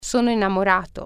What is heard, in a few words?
i am in love male, italian